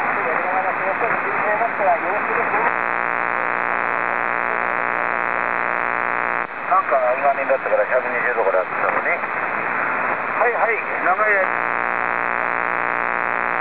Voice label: Japanese 80m SSB, Noise; HAM; Noise Example